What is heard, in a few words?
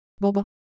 Japanese, ideophones